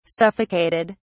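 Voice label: "suffocated", British English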